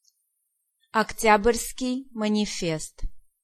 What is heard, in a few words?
"October", Pronunciation